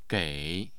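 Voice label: "gei", Mandarin Chinese, tone 3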